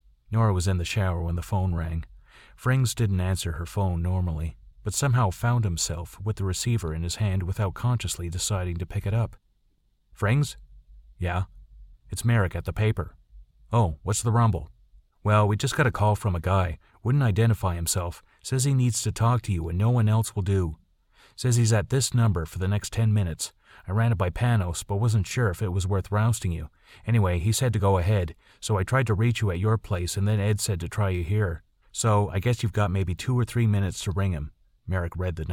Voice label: VO audiobook